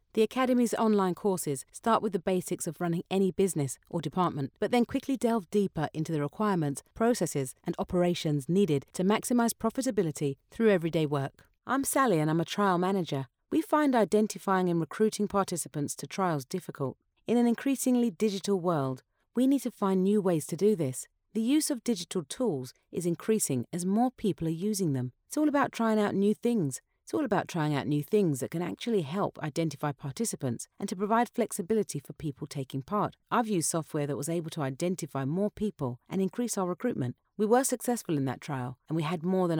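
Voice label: Educational Explainer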